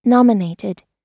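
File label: "nominated", American English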